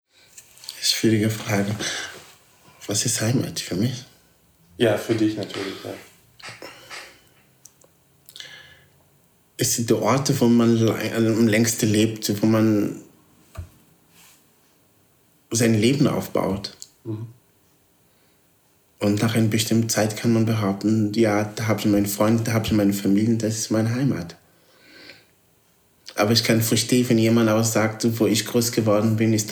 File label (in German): portugiesisch mosambik